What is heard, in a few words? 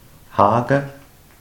"Haage", markgräflerisch